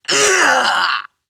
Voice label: Death en; Warframe; Grineer Catbrow Handler; Battle Barks